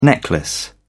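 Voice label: "necklace", British English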